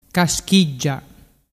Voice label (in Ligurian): "caschiggia", dialettu